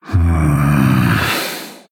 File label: Male Human Thinking